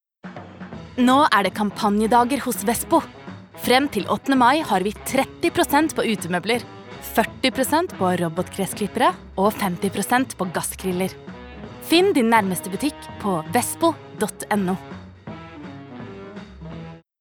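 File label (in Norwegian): reklame, Norwegian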